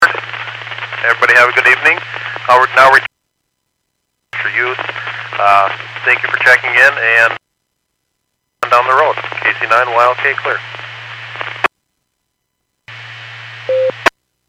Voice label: Skywarn 146.67; Stevens Point

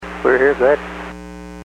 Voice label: Aviation 121.5 Emergency

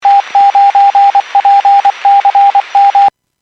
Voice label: Skywarn 146.67; Stevens Point

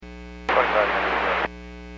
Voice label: Aviation 121.5 Emergency

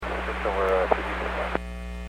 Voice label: Aviation 121.5 Emergency

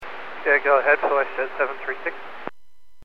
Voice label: Aviation 121.5 Emergency

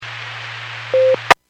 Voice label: Skywarn 146.67; Stevens Point

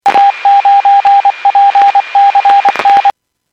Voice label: Skywarn 146.67; Stevens Point

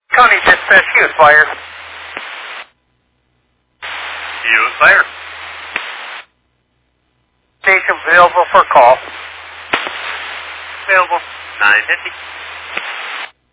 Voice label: Fire Dispatch

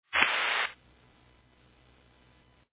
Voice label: Fire Dispatch

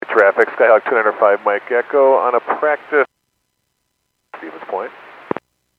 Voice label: Airports 122.7, Radio; KSTE

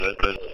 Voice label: Police Sheriff Dispatch

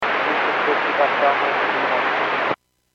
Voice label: Airports 122.7, Radio; KSTE

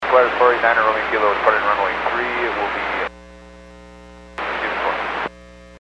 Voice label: Aviation 122.7; KSTE

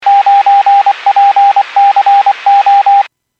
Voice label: Skywarn 146.67; Stevens Point

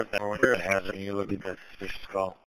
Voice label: Police Sheriff Dispatch

